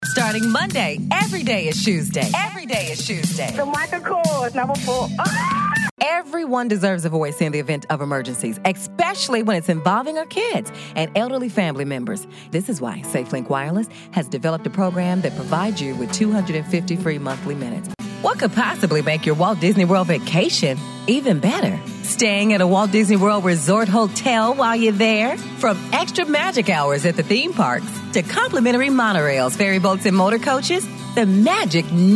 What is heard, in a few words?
IMAGING